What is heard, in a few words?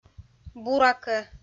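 "буракӧ", Komi-Zyrian, female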